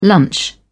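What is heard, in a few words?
"lunch", British English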